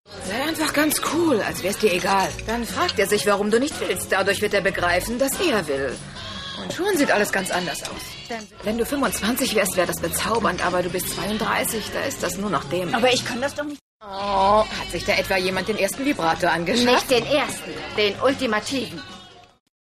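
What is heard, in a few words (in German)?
synchron, sprecher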